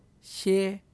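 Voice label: "shie", syllable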